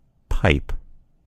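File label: "pipe", American English; gcompris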